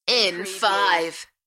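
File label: British female In five; beanstalk audio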